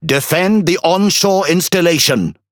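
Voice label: Speech Quick Commands Ally Building, British English; World Of Warships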